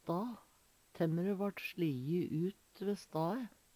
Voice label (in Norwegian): sta, Numedalsmål; Substantiv inkjekjønn